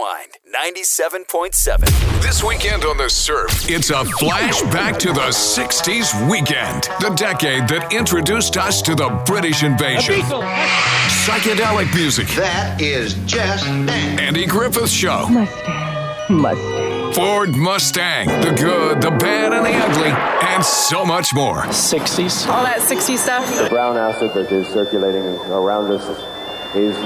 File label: Classic Hits Imaging